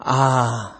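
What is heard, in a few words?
"ah", Nigerian Pidgin, male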